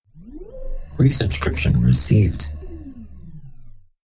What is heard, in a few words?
male spark informative; resubscription recieved